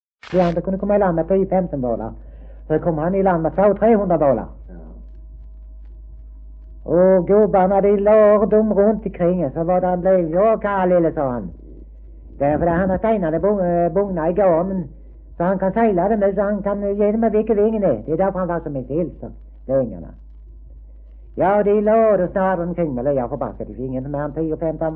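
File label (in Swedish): Degeberga Skåne, svenska sagor dialekter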